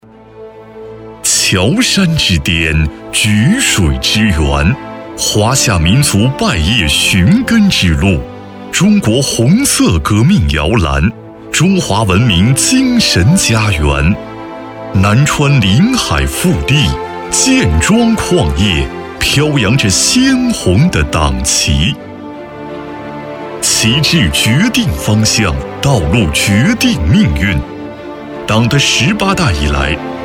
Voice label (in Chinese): 男国 专题 党建 激情; 黄陵建庄; 黄陵建庄党建